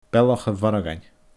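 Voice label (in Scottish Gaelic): "Bealach a Mhorgain", Scottish Gaelic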